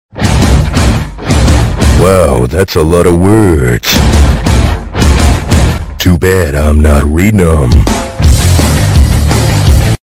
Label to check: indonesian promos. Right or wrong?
Wrong